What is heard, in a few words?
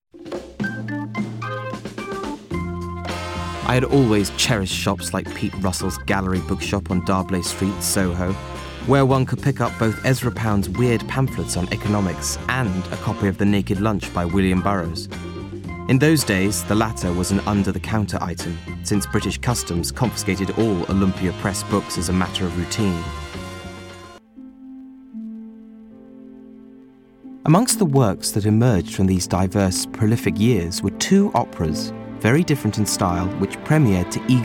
Narrative